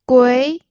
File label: "gui2", pinyin audio